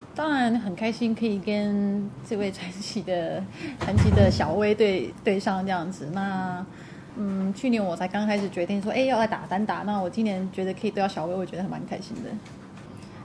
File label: mandarin; SBS Chinese; Su-Wei Hsieh：Challenging Serena Williams is good opportunity